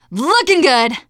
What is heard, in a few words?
taunt, teen girl